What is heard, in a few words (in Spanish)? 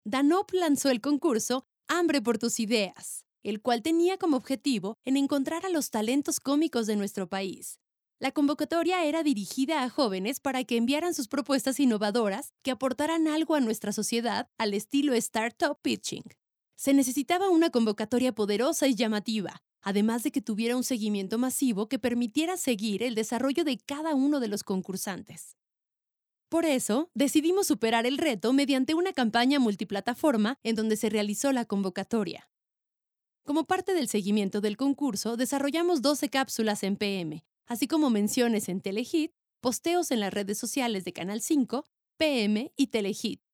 locutora mexicana; mexico